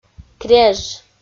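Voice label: "креж", Komi, female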